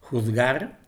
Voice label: "juzgar", es